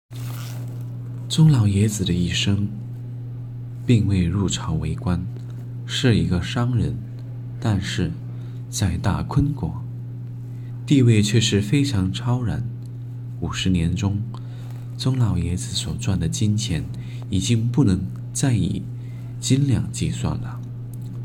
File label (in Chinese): 磁性温柔青年